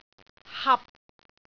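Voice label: "hap6", Cantonese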